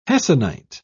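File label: "hessonite", English, British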